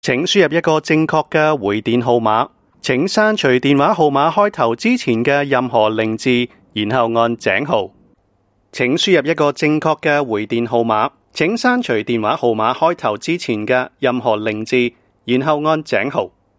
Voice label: Cantonese IVR Sample

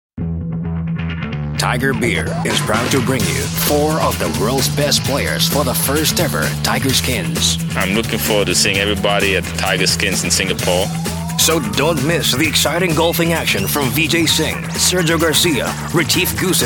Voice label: Asian English, TVC; Tiger Beer

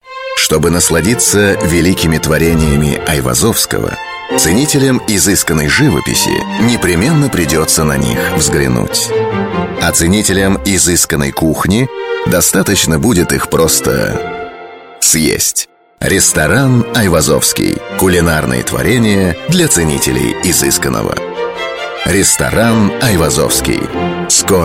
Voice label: Russian Male

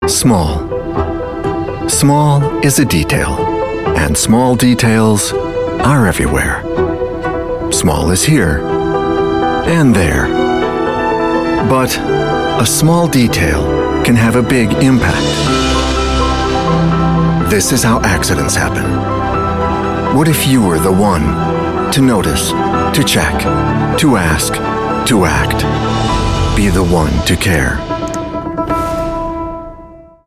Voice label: US English; Ricard

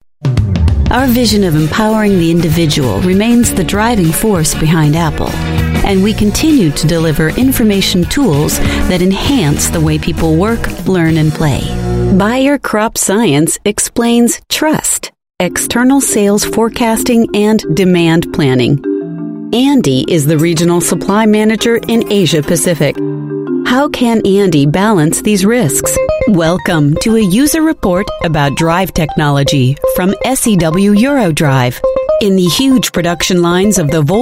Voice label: US English Corporate Narration; Female

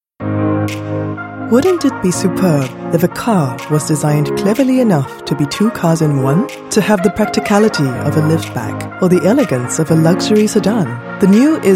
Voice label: Asian English Automotive

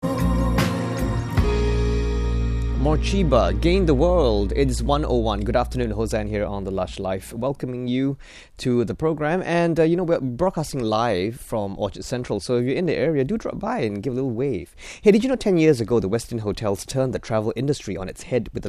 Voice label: English Singaporean Male, Bed Mention; Westin

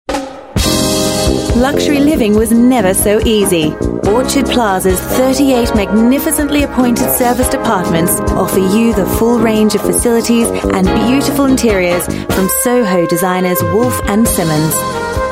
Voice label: Aus English, Australian Female

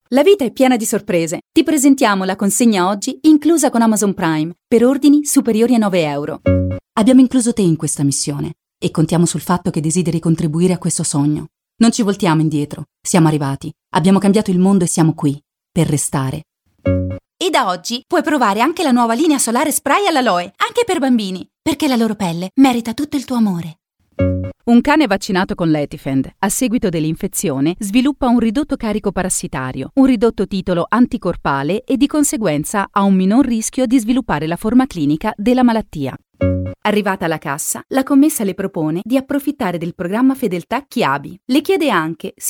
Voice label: Italian Commercial Promo

